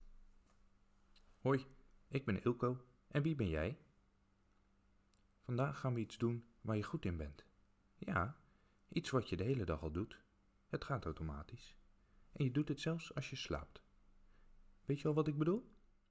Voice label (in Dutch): Fragment meditatie; weerbaarheidstraining